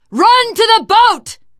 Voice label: arrive boat, teen girl, survivor voice; source engine; left 4 dead 2

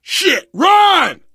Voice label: emphatic run, survivor voice

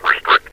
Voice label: "restrict", metropolice; hl2; Source engine

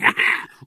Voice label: ballbot short giddy

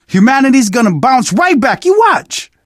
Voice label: zombie generic short, survivor voice; source engine; left 4 dead 2; manager

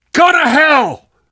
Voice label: swear coup de grace, survivor voice

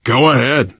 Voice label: lead on, survivor voice; source engine; left 4 dead 2

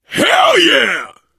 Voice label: taunt, biker; source engine; left 4 dead 2; survivor

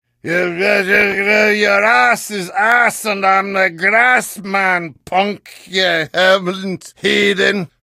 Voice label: gibberish; demoman; source engine; tf

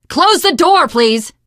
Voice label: close the door, teen girl, survivor voice

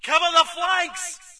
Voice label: brit cover flanks; player; Source Engine; dod

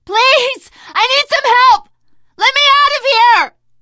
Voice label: call for rescue, teen girl voice; survivor voice

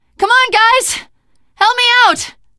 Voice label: ledge hang start, teengirl; source engine; left 4 dead 2; survivor

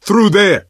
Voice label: misc directional, coach, survivor voice

